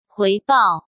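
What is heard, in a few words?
"回报", Mandarin Chinese; chinese flashcards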